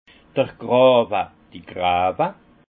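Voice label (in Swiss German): "grawe", alsace Haut-Rhin; fossés; vin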